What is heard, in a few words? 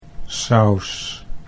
"saus", Dutch